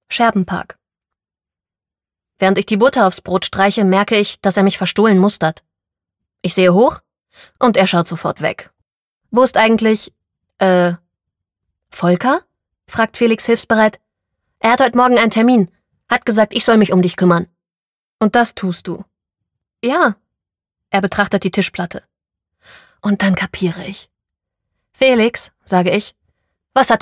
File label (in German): Hoerbuch Jung